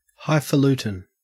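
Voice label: "highfalutin", Australian English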